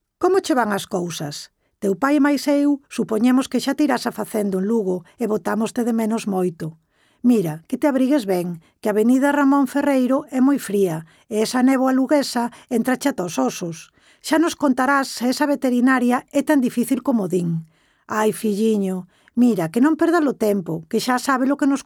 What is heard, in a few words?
Galician; VOICES